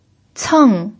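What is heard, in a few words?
"ceng", pinyin, fourth tone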